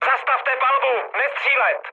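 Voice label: player friendly fire, Czech, mood med; speech; War Thunder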